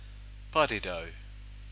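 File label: "parirau", Māori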